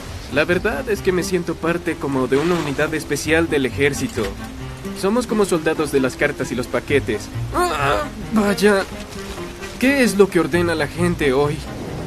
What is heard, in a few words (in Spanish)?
Spanish Latin American tv shows